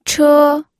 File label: "che1", pinyin